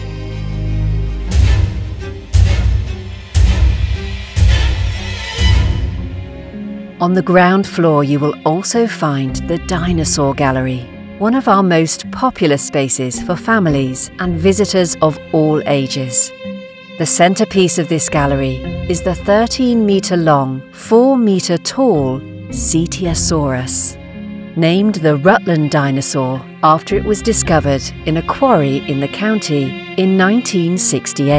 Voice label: Intro History Sample, British voice